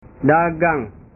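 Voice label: "dagang", Indonesian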